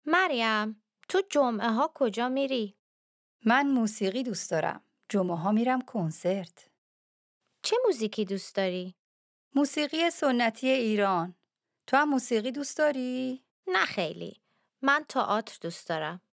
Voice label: Dialogue lesson Farsi